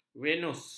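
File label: "venus", Malay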